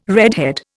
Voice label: "redhead", Indian

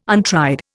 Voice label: "untried", Indian

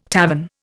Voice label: "tavern", Indian

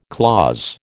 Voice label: "clause", American

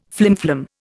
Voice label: "flimflam", Indian

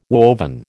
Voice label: "woven", American

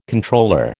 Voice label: "Comptroller", American

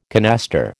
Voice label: "canaster", American

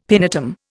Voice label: "pinetum", Indian; Dictionary

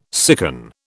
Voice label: "sicken", British

